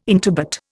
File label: "intubate", Indian